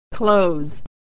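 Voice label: "close" "clothes", American English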